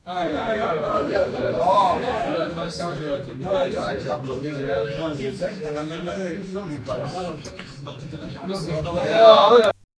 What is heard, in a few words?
group of chinese men talk, asian